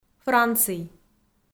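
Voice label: "франций", Komi, female; kpv audio dict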